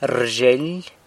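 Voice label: "rzhyeily", San Lucas Quiaviní Zapotec; gets opened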